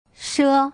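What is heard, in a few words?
"she", Mandarin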